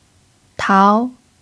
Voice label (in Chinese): "tao2", f; chinese